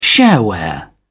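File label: "shareware", British English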